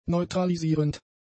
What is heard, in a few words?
"neutralisierend", German; adjectives